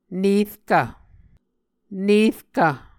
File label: "nerkv", Muscogee